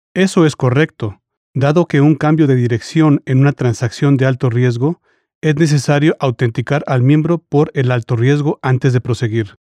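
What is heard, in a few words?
Spanish, Male VO; Voice Samples; Foreign Language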